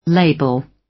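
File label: "label", British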